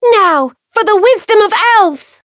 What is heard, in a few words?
variable chant; veina